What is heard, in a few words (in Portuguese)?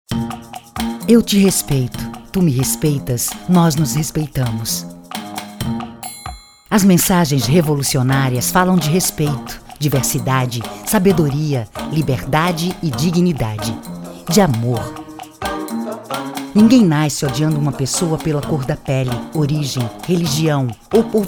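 Português - Brasileiro, Portifólio comercial completo, Voz Padrão - Grave, Feminino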